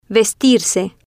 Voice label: "vestirse", Spanish

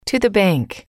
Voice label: to the bank, English

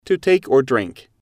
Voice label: "to take drink", English

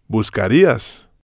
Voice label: "buscarias", spanish

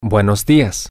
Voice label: good morning, spanish, M

